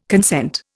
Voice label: "consent", Indian